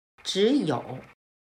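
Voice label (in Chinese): "只有" Mandarin Chinese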